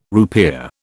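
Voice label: "rupiah", British English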